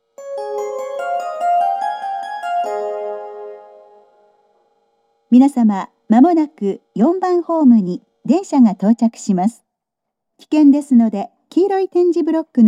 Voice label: out of service, st announce; kobe sannomiya st; hankyu